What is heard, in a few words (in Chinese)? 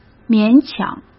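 "勉强", Mandarin Chinese; hsk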